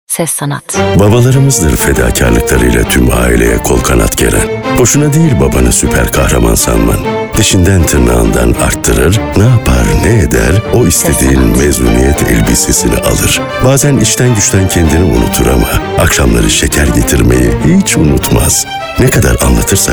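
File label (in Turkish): TR, Seslendirme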